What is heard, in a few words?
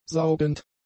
"saugend", german; adjectives